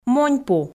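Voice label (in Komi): "моньпу", Komi, female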